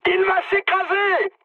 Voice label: aircraft destroyed mood high, speech fr

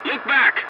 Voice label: voice message check your six, english; War Thunder

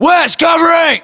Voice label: US response covering west, battlechatter, english; localized english

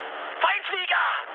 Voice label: enemy detected, mood high, speech ge; War Thunder

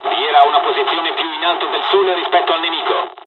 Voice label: sun, dialogs italian; midway us; War Thunder

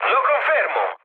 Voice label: player killed enemy mood med, speech it

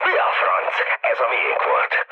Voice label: player killed ally, mood low, speech hun; War Thunder

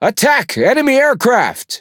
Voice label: Speech Quick Commands Enemy Planes, EN; World Of Warships

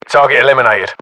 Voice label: inform killfirm infantry, battlechatter, uk; english; localized english

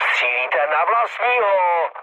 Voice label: player friendly fire, speech cze, mood low

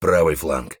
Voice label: flank right, voice; Escape from Tarkov

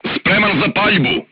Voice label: voice message charged, serbian crew tanks

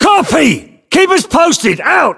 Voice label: voiceovers, US; english

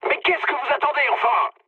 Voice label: attack position mood med, speech fr; War Thunder

